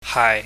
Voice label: "haai", chinese

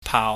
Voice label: "paau", Chinese

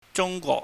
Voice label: "junggwok", Cantonese

